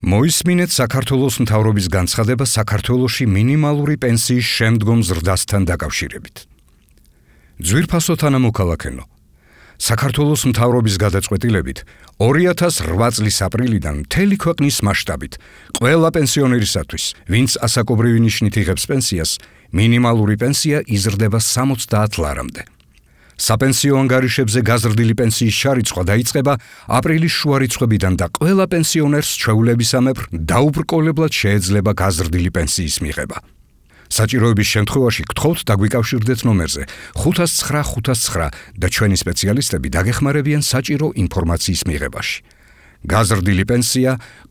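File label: georgian male narration; voice demos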